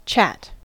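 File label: "chat", American English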